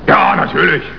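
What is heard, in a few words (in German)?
"klar", German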